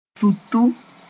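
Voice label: "sũtu", Mooré; grass sp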